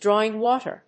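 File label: "drawing water", English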